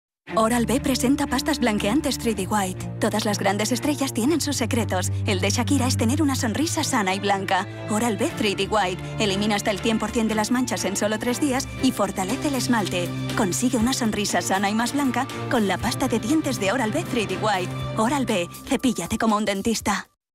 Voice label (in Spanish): castellano; oralB